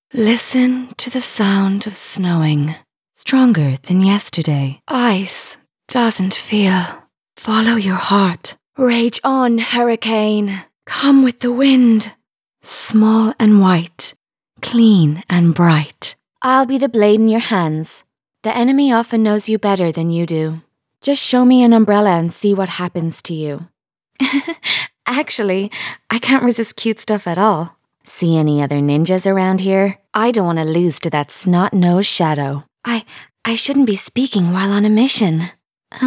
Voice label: Female Characters